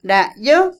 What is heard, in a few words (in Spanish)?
Otomí, mujer; nuevo; INALI